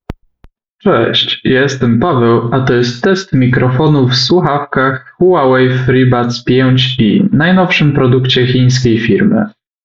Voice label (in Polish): test mikrofonow; huawei freebuds 5i